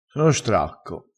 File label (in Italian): "stracco", crespinese